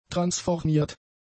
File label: "transformiert", German; adjectives